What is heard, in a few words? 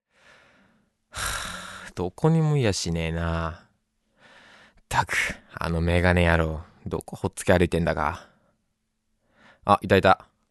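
Voice Sample Narration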